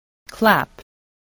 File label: "clap", spelling